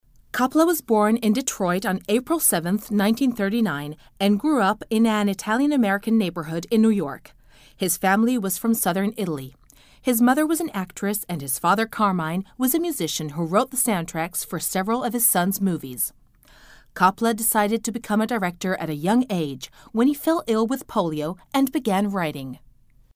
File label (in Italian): inglese usa